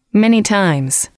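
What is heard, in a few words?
many times, English, female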